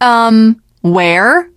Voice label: teengirl, voice; Left 4 Dead 2; l4d1 finale